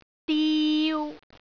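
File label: "diu3", Cantonese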